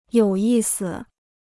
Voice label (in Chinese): "有意思", Chinese